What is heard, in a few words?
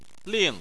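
"ling", Chinese, fourth tone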